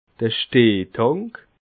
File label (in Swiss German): "stehtank", alsace